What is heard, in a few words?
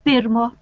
"pirmo", Lithuanian